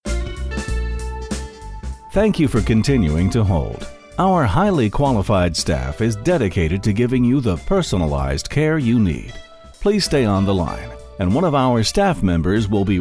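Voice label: English US M IVR, male, American; voice over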